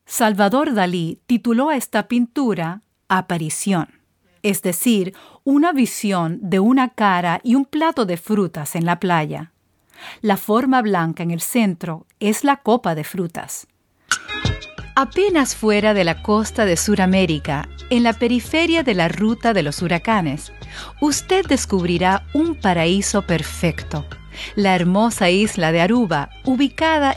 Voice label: Adult Audio Tour Spanish